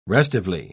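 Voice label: "restively", English, British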